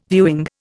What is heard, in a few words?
"viewing", Indian